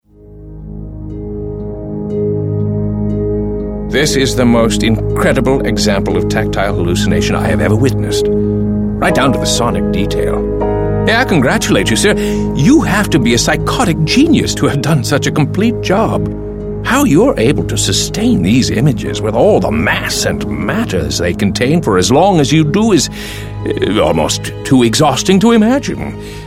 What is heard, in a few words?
audiobook